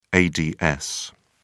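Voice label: "ads", British English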